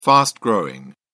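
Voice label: "fast-growing", British English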